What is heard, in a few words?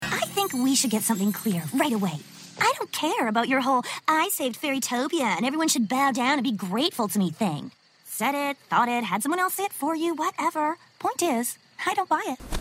Animation; TaDa